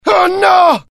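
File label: fear grenade, IT; dialogue; mohaa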